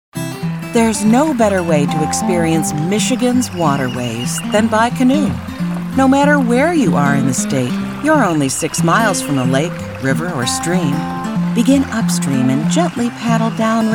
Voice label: narration variety